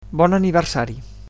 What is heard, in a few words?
"birthday", Catalan